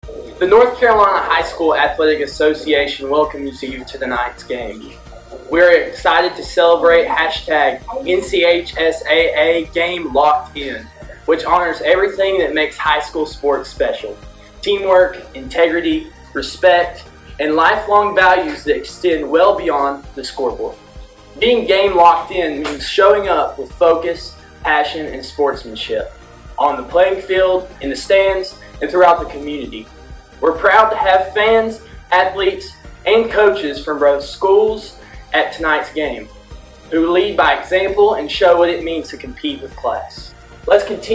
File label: PA Read Male; Audio File of Game Public Announcement- Male Voice; NCHSAA Game Locked In Toolkit